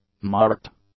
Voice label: "marked", English; adjectives

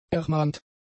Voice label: "ermahnt", German; adjectives

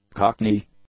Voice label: "cockney", English; nouns

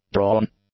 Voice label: "drawn", English; nouns

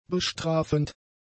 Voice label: "bestrafend", German; adjectives

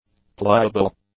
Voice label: "pliable", english; adjectives

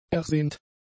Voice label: "ersehnt", German; adjectives

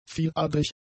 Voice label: "vieladrig", German; adjectives

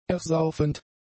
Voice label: "ersaufend", German; adjectives

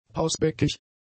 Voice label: "pausbäckig", German; adjectives

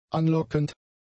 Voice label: "anlockend", German; adjectives

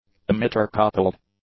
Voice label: "emitter-coupled", English; adjectives

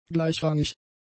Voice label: "gleichrangig", German; adjectives

